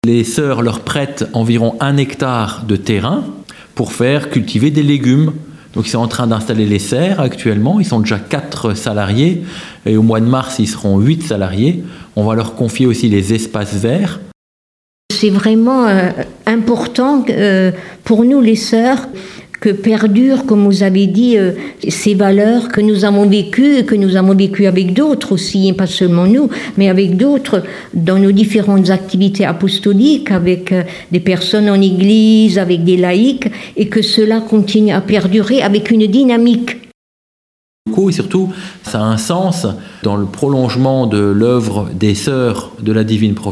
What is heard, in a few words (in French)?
Radio; Appel a projets de Saint Jean de Bassel